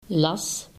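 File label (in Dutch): "las", Dutch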